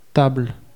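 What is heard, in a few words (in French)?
"table" French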